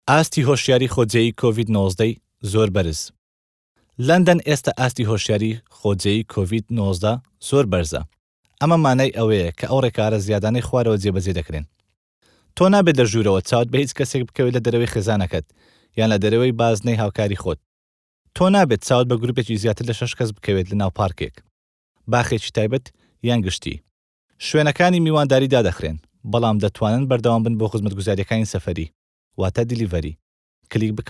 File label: KURDISH SORANI Covid London Alert Very High